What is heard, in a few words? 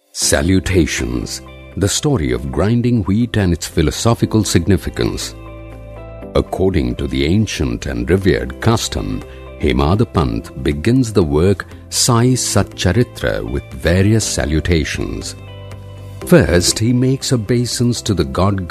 indian male audiobook